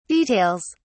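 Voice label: "details", British English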